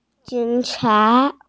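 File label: "police", Mandarin Chinese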